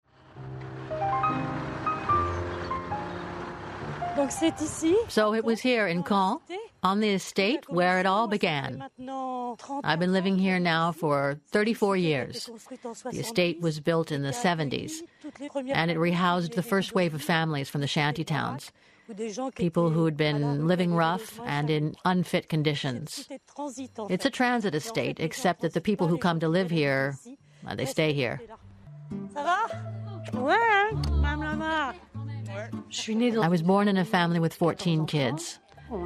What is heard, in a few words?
Assistante Sociale Voiceover